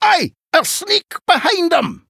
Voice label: Surly Dwarf Emote Play